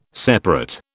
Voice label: "separate", British